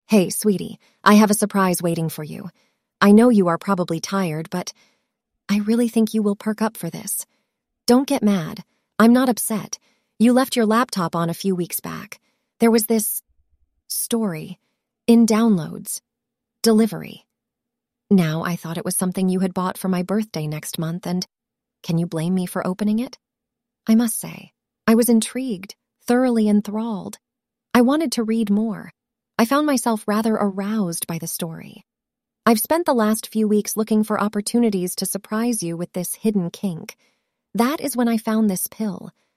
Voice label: generated voice